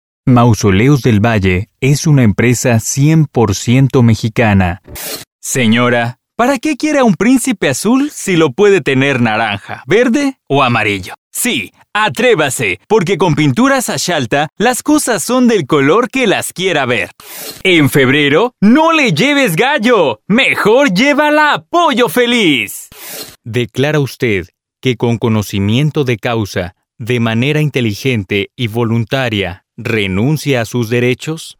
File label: DRY